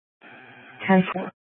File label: PD Dispatch